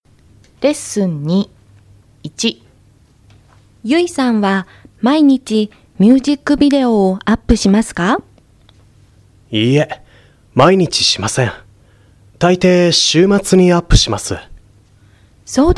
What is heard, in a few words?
Conversation